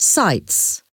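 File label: "sights", English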